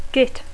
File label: "git", English; Dacco